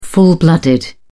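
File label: "full-blooded", British English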